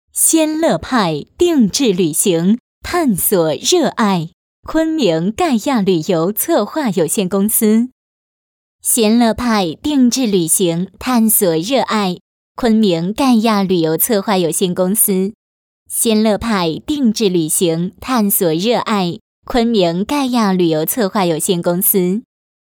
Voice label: 女 广告 旅游公司

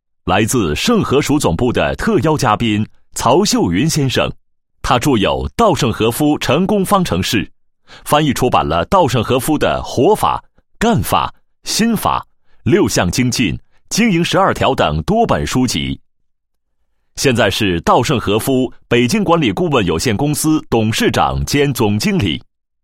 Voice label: 男 解说词 嘉宾介绍 解说词

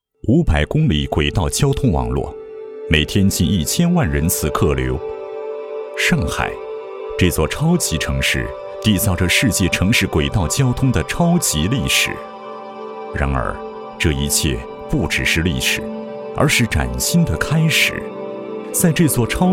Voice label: 男 专题 娓娓道来解说风格, 浑厚磁性, 国语男声配音; 轨道新触角

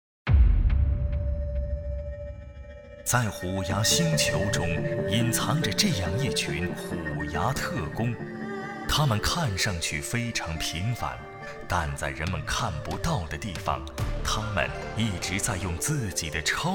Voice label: 宣传片, 直播; 虎牙直播; 品牌直播

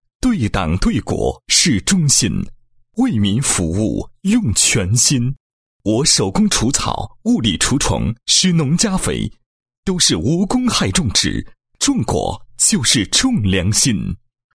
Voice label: 男 诗歌; 做人做事凭良心

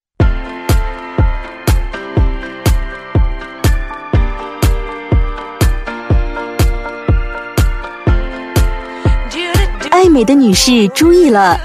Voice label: 女 广告, 国语女声配音; 格桑花美容会所; 时尚女人

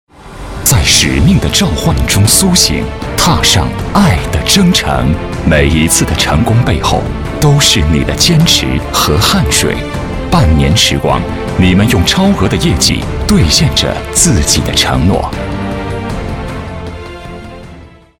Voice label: 男 旁白 厚重大气, 年轻; 国语男声配音; 使命的召唤

